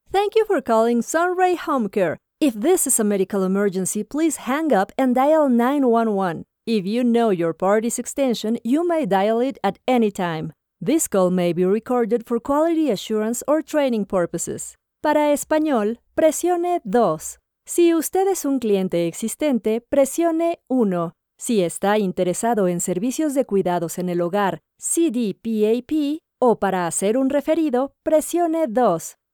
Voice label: bilingual IVR female English & Spanish

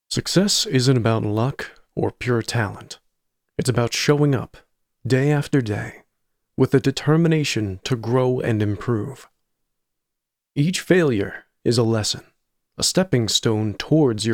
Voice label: Non-Fiction (Educational-Inspirational); Luck or Pure Talent

